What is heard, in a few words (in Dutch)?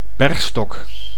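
"bergstok", Dutch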